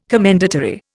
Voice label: "commendatory", Indian